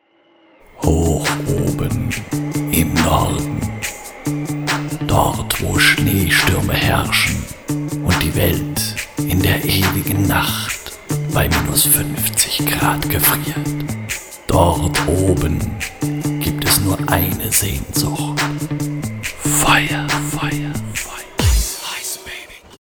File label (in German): Voice Demo; Showtanz Eskimos